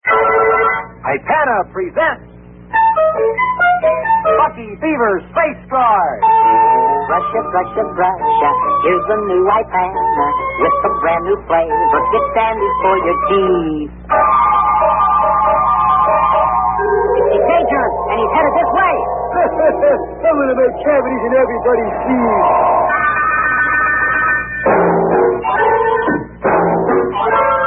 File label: Vintage Commercials; Ipana Toothpaste Bucky Beaver